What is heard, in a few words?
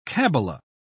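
"kabala", British English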